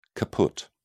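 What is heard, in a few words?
"caput", English, British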